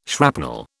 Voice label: "shrapnel", British

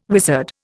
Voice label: "wizard", Indian

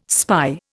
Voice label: "spy", Indian

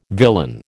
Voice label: "villain", American

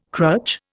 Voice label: "crutch", Indian

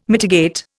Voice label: "mitigate", Indian

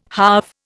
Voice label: "halve", Indian

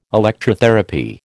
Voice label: "Electrotherapy", American

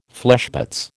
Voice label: "fleshpots", American

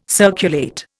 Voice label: "circulate", Indian

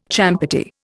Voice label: "champerty", Indian

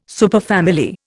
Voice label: "superfamily", Indian

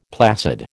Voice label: "placid", American

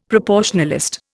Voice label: "proportionalist", Indian; Dictionary

